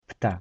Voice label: "bird", polish